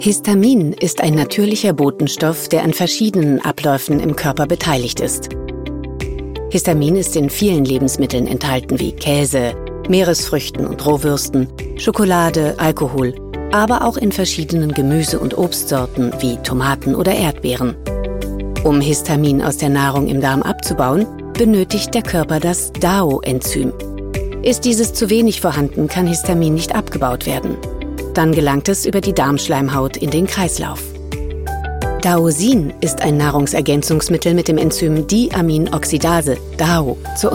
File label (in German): Erklärfilm; Daosin